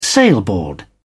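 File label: "sailboard", British English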